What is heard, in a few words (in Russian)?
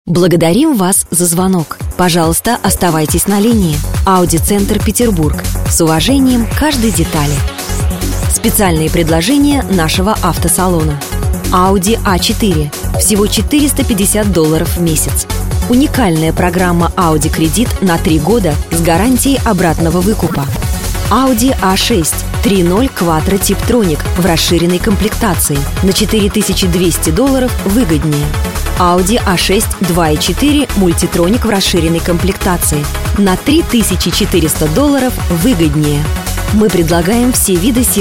golosovoe privetstvie; Audicenter; ivrplus